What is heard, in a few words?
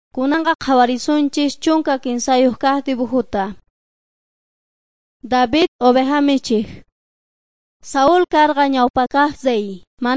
quechua bolivian cochabamba; servants of god